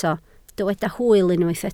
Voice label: "hwyl", Welsh